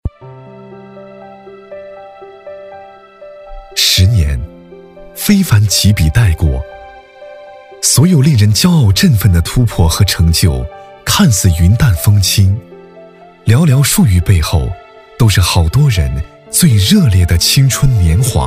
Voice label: 男,旁白; 十年非凡几笔带过

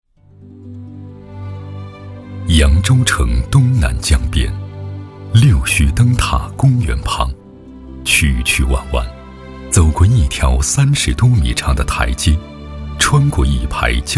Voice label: 男 纪录 人文 片 人文讲述; 巡江老船长

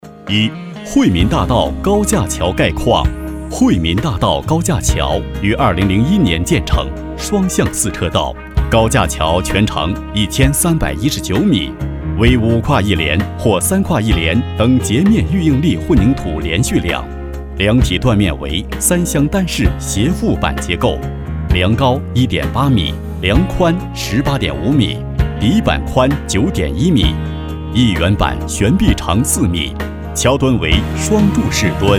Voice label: 男 工程解说 高架; 惠民大道高架

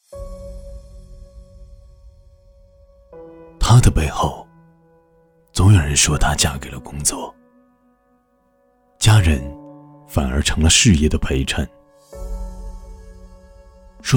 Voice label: 男 旁白 告白

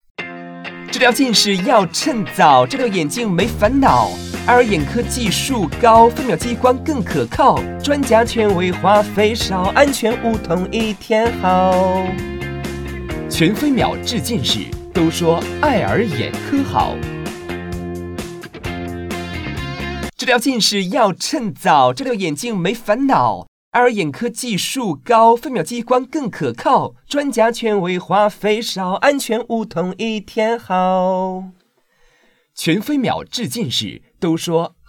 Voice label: 男 广告 欢乐唱歌风格; 近视

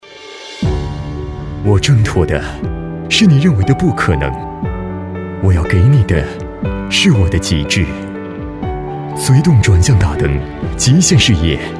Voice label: 男 广告 品质; 福特野马

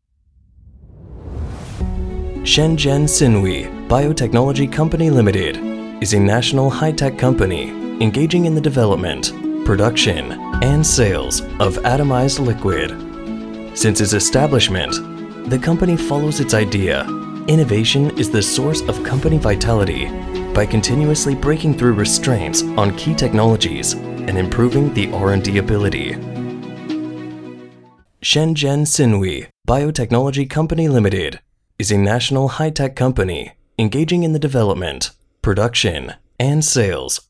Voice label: 产品解说

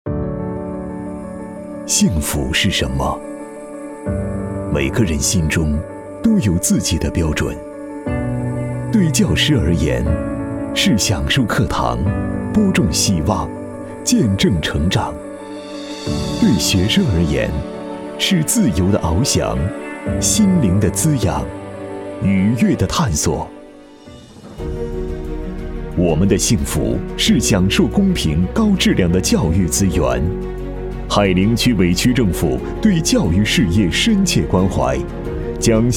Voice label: 男 专题汇报 厚重; 泰州市海陵区庆祝第四十个教师节

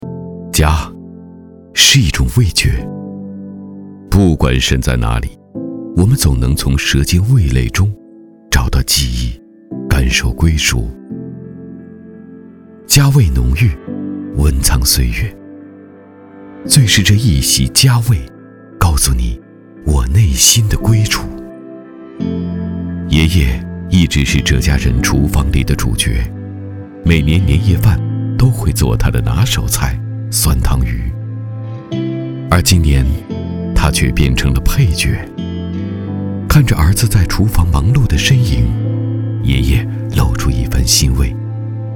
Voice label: 男 独白 磁性; 中铁

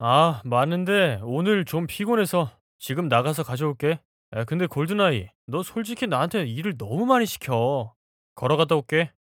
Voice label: 外籍韩语男 对话

